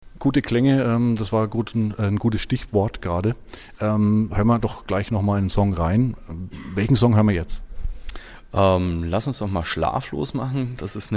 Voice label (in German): Schlaflied Ansage